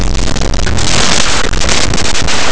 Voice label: "mascara", fricatives; laboratori fonetica

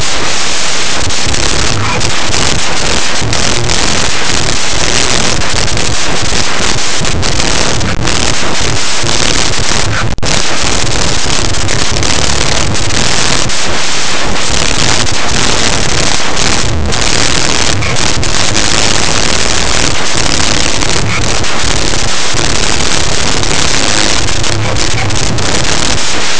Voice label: noticia; laboratori fonetica; noticies

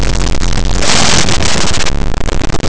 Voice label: "carro", vibrants; laboratori fonetica

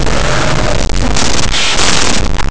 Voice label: "alzar", laterals; laboratori fonetica

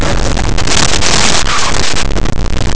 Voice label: "borracho", africades; laboratori fonetica

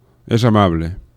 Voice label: "es amable", fricatives; laboratori fonetica

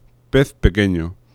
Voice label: "pez pequeño", fricatives; laboratori fonetica

